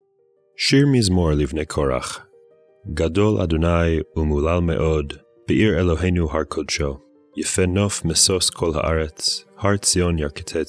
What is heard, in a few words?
poetry and prayer psalm hebrew